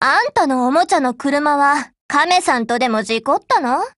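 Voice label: HELLO Japanese, Voice; GF Alarm; M870P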